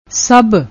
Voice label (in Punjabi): "sabh", Punjabi